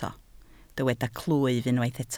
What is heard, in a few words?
"clwyf", Welsh